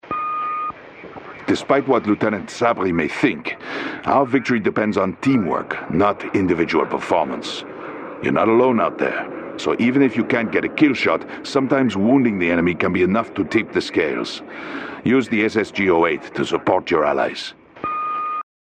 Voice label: radio; campaign; bloodhound